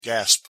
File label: "gasp", word